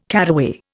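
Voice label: "caraway", Indian English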